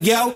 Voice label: "yo", voice